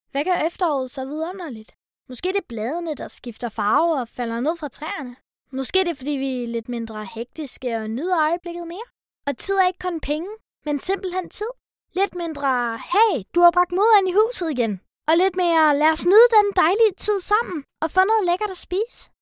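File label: Danish female commercial contemplating engaged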